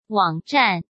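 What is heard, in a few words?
"网站", Mandarin Chinese; hsk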